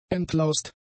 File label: "entlaust", German; adjectives